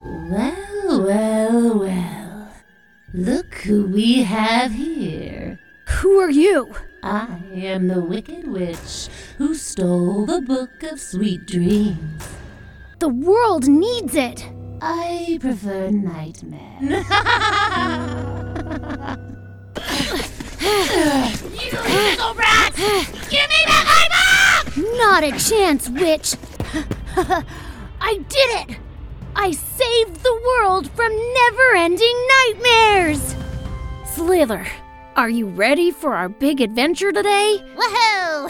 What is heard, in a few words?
Animation